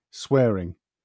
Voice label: "swearing", en; pronunciations